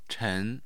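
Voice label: "chen", Chinese, second tone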